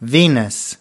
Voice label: "venus", us